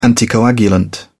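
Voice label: "anticoagulant", English, British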